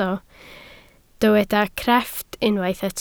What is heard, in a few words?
"crefft", Welsh